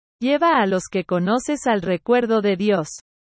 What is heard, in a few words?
Google spanish; rememberance